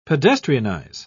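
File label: "pedestrianise", English, British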